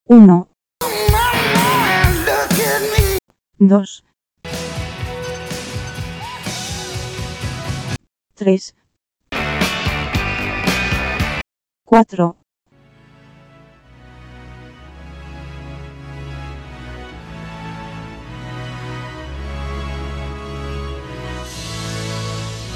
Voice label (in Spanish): adultos